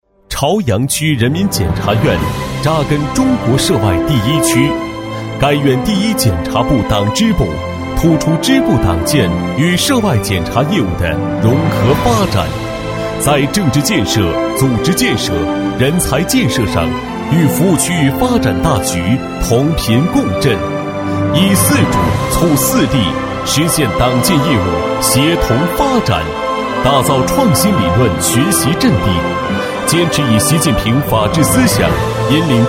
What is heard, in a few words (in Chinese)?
男 宣传片 大气激情; 朝阳区人民检察院; 年轻气质; 大气浑厚